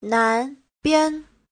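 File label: "nánbian", Chinese; Giáo trình Hán ngữ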